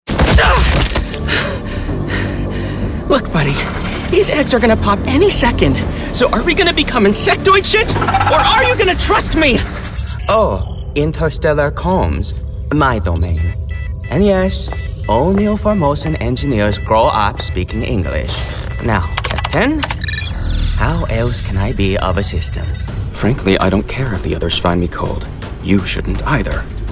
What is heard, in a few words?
vo interactive